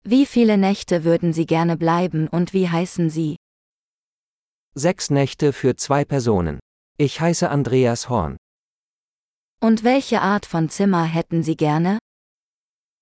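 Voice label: Booking a Hotel Room - Dialogue, German; de-en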